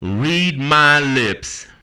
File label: male fx vox, vocal house, d; PS-28 Vocal House Samples